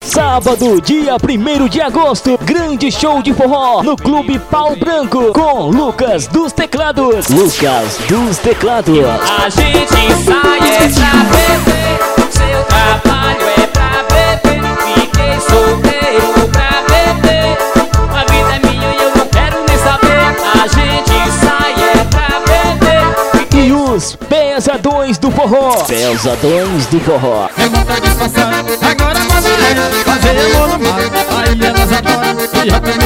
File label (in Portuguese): vinheta